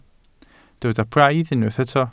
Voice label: "braidd", Welsh